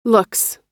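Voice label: "looks", American English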